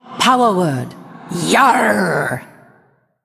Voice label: Female Ghost PIRATE DAY